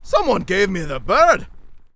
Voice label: Angry; warcraft3; Gryphon Rider